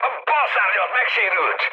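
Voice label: Hungarian left wing mood high, speech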